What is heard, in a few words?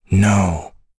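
Vox Deny, English; Dakaris; kingsraid; voices heroes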